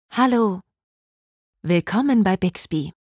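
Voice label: onboarding provision greeting, German; Samsung Galaxy S10; Bixby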